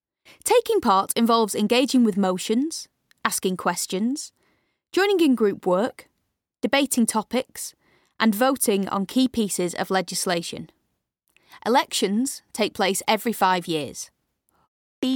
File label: Home studio